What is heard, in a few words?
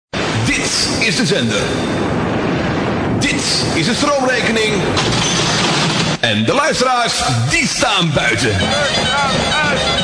Jingle; Flux Fm; Audio Fragmenten; Arkan Radio 2